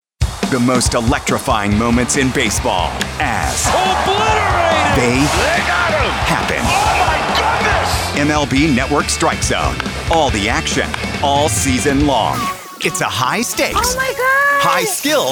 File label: Promo VO; Promo “Coming up next….” “Don’t miss this exciting episode….” “Tonight at 8pm Central…”